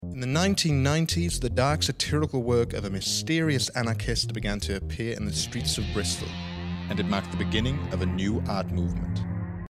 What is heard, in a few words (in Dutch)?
voice over engels